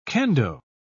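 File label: "kendo", British English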